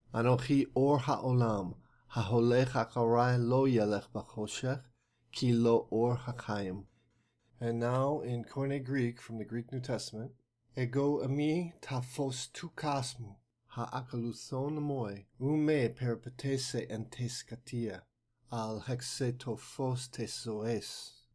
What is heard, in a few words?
hebrew Greek; john 8-12